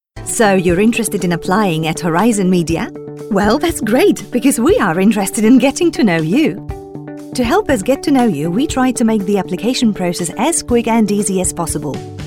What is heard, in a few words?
English Narrative; LOFT VOICES